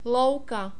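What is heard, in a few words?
"louka", czech, male; diphthongs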